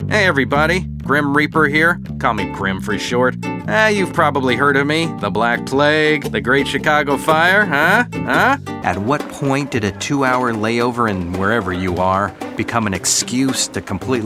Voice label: commercial

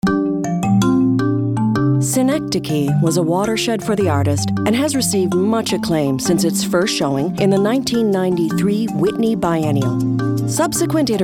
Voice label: Narration

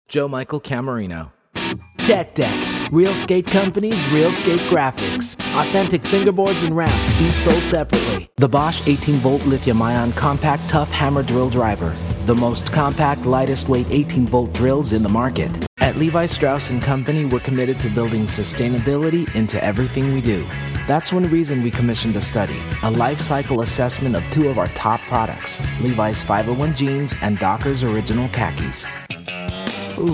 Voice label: Commercial

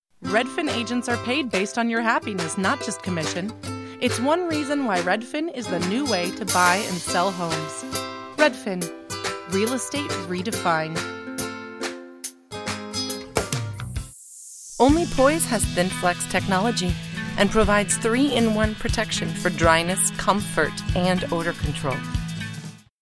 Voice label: Commercial

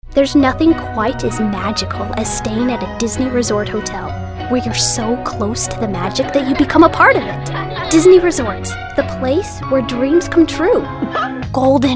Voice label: commercial

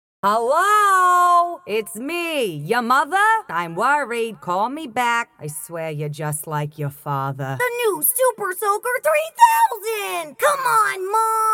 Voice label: Animation

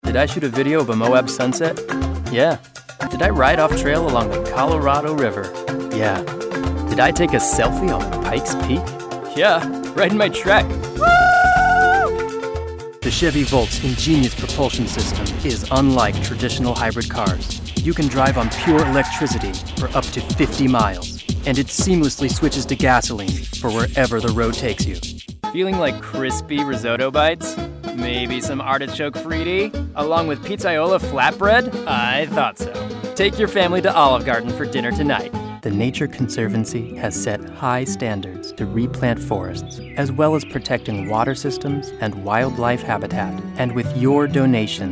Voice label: Commercial

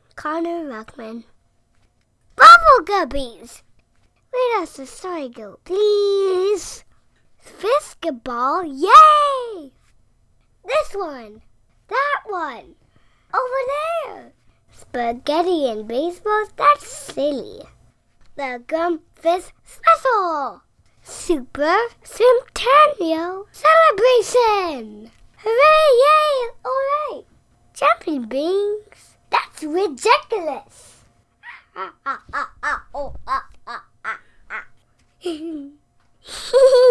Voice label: youth commercials

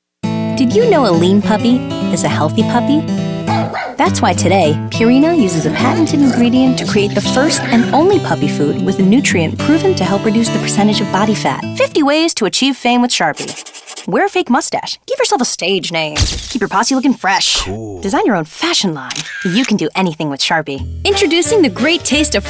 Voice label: commercial

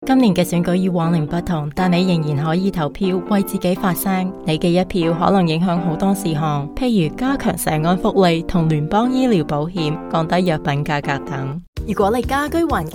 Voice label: Chinese, commercial

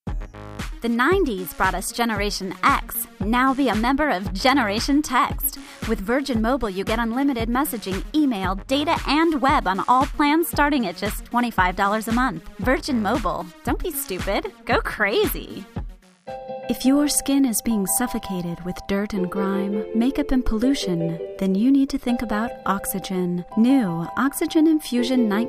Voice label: Commercial, vo

